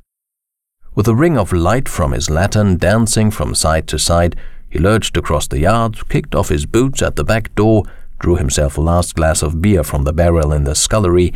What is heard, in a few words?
englisch animal farm